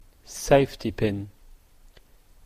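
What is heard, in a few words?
"safety pin", Canadian English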